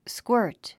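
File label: "squirt", English word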